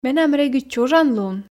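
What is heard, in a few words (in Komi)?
менам регыд чужан лун, Komi, female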